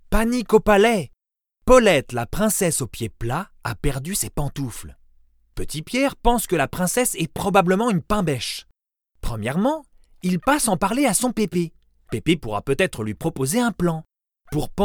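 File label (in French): narration enfants medium naturel, voix off masculine; voix off masculine naturelle, posée, souriante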